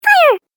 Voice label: Cartoon Game Voice "Fire"; Cartoon Voice Sound Effects

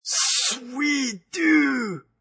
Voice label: Pothead, Hippie saying; Talking; Voice

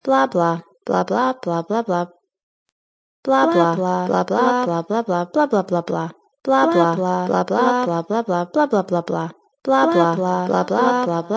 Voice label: Blah Blah Blah Woman; Human Vocal Ringtone; Human Vocal (Sound Effects:Ringtones)

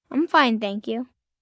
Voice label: Im Fine Thank You, Etiquette, 1 child, 10 yrs; VoiceBox

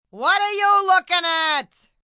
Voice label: You Lookin At, Attitude, Female; Talking; VoiceBox

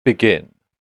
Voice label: "Begin" Male Voice; Talking

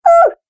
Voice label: Cartoon Game Voice "Oooh"

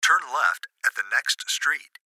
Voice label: Gps Voice, Male; Recorded Voice

